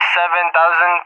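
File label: "700", English, Russia; Numbers; DCS; Allied Flight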